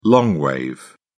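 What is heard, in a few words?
"long wave", British English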